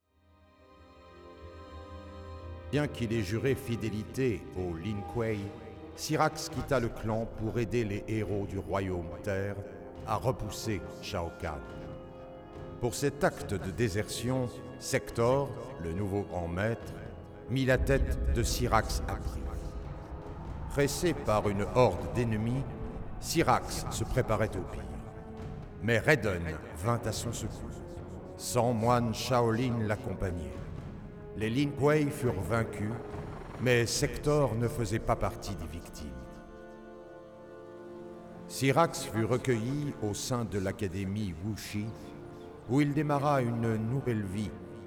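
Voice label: music voice over endings French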